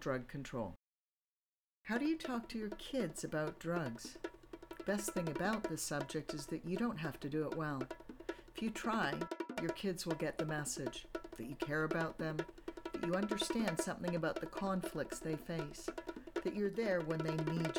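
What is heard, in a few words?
voice sample advisory psa, female voice; office of national drug control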